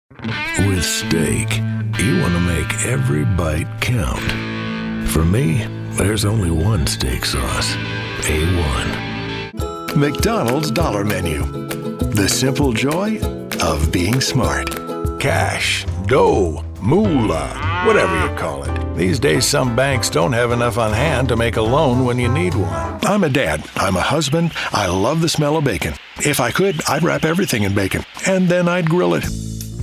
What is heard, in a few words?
Commercial